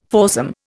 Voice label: "foursome", Indian